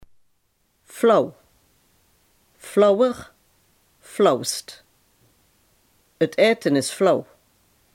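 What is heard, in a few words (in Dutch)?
"flauw" Limburgish dialect word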